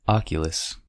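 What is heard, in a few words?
"oculus", American English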